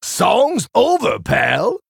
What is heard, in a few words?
Male Tauren Knockout; ETC King of Rock